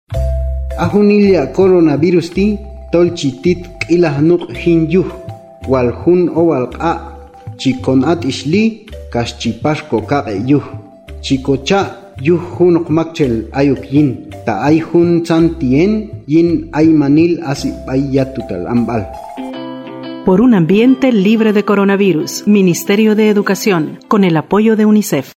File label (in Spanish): Que es coronavirus Qanjobal; prevencion